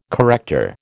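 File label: "corrector", American English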